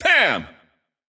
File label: intense